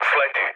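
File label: Serbian bailout mood low, speech; War Thunder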